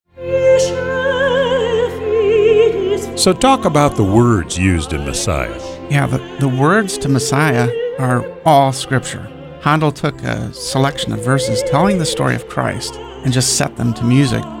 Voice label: radio spot; wbnh 2017